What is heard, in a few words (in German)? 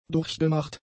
"durchgemacht", German; adjectives